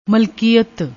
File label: "malkeeat", Punjabi; ਮਲਕੀਅਤ